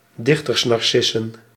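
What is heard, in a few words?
"dichtersnarcissen", Dutch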